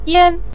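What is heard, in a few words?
"yan" neutral tone, Mandarin Chinese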